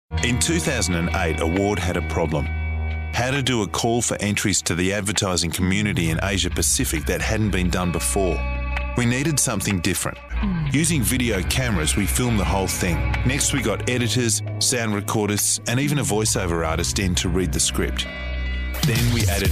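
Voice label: english australian male narration; voice demos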